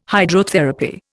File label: "Hydrotherapy", Indian